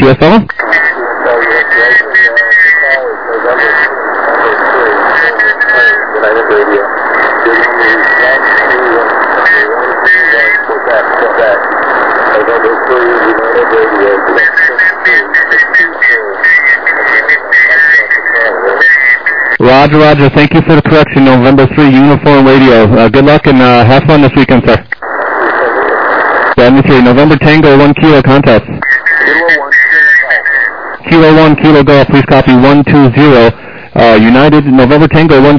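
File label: lower sideband 7MHz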